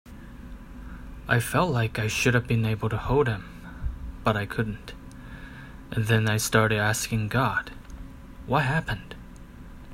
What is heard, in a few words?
testimonial